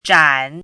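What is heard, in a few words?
"zhan3", Mandarin pinyin syllable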